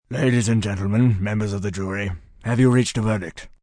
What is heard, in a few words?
Reached Verdict, Courtroom, male, judge; Talking; Voice Box